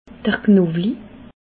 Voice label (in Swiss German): "knowli", alsace